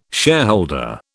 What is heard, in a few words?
"shareholder", British